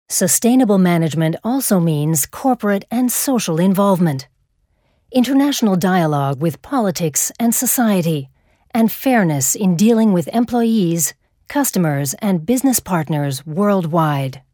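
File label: Australian elearning; sprecher; englisch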